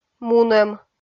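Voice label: "мунӧм", Komi, female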